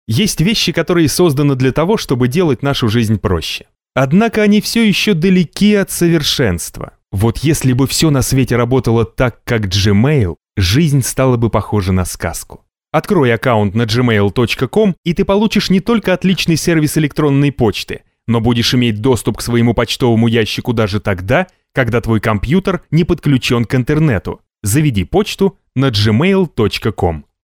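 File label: Russian m voiceover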